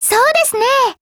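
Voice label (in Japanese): agree, Japanese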